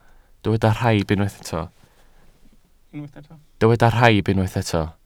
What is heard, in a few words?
"rhaib", welsh